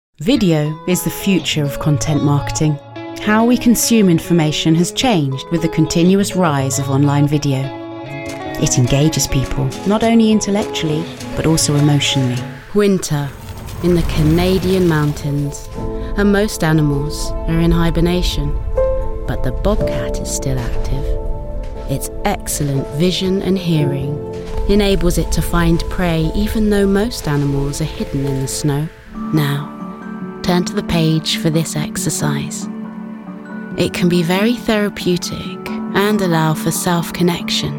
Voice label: Narration Showreel